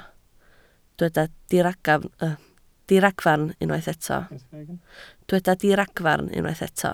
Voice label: "diragfarn", Welsh